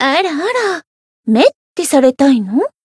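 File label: encounter, jp; pizzica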